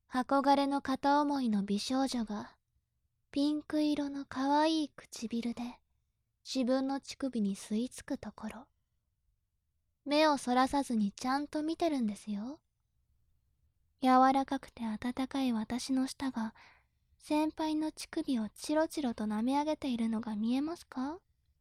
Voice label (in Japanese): 調教中音声